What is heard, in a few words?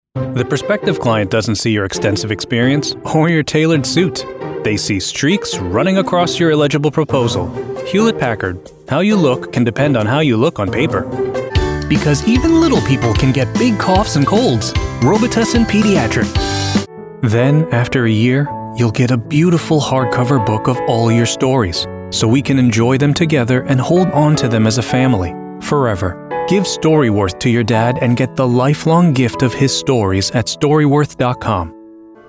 commercial promo male voice over american